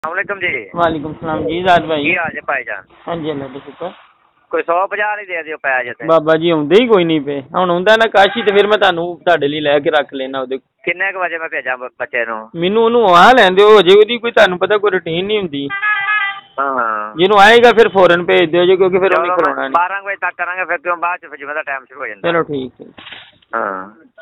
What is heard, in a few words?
Call recording